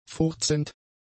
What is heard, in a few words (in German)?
"furzend", German; adjectives